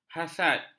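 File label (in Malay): "hasad", Malay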